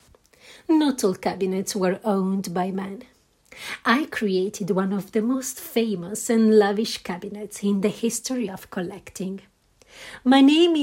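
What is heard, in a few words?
Italian, Accented English; GoLocalise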